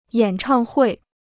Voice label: "演唱会", Chinese

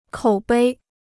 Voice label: "口碑", Chinese

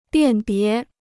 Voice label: "辨别", Chinese

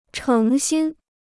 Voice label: "成心", Chinese

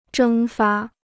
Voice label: "蒸发", Chinese

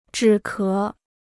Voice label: "止咳", Chinese

